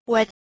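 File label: "wed" Proto-Indo-European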